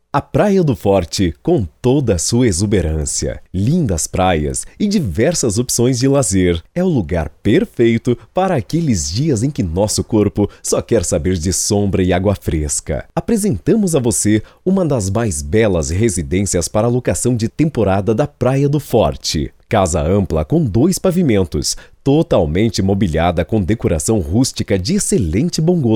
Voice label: Institucional

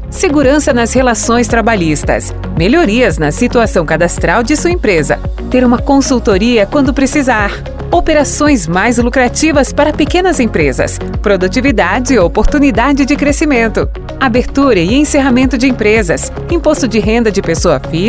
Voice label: Spot Simples Contabilidade

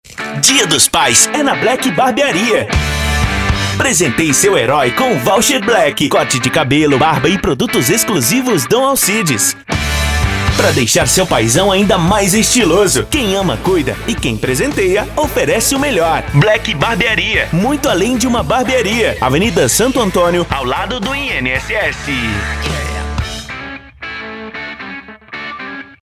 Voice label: Spot Especial; Black Barbearia

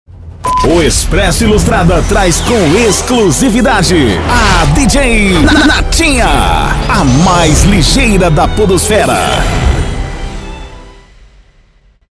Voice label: Vinheta Falada

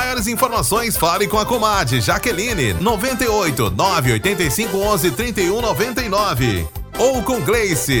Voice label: spot Festejo Junino; Amorim

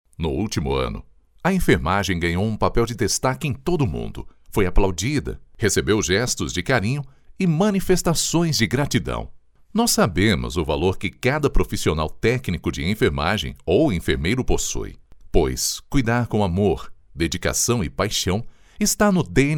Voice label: Institucional

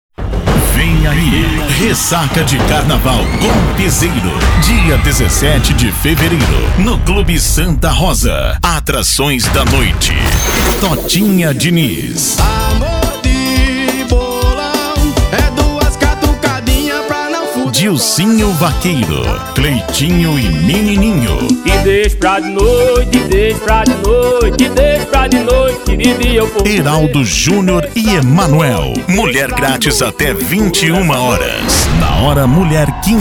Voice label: Spot Especial; Ressaca de Carnaval

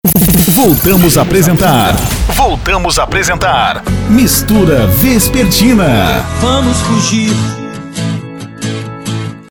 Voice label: Vinheta Falada

